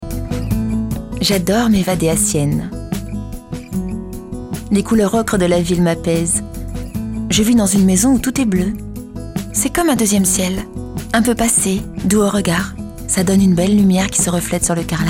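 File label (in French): Flux Tv reveuse, voix off; Roger Gallet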